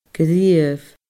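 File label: "gnìomh", Scottish Gaelic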